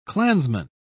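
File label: "klansman", British English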